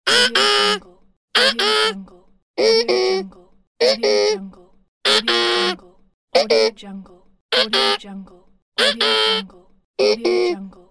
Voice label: cartoon character voice rude deny pack